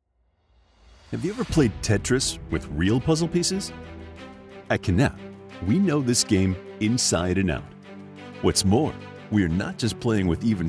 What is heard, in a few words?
Business Explainer